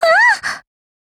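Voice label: Japanese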